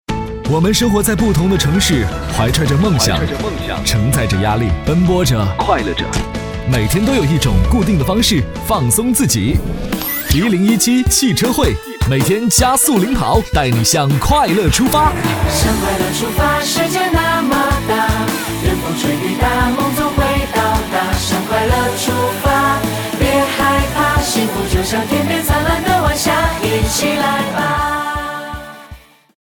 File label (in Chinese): 电台包装 轻快时尚; 【电台包装】即墨改版-轻快时尚